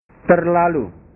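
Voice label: "terlalu", Indonesian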